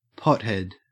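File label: "pothead", Australian English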